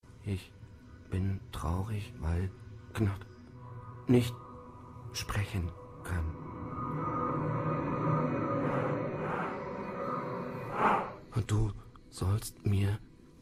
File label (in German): Hörspiel; ZKM